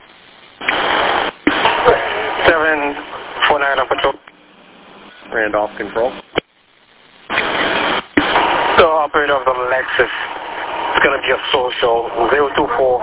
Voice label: Police Radio